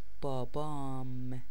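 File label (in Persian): "بابام", Persian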